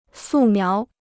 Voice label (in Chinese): "素描", Chinese